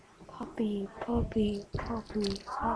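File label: "puppy", spoken word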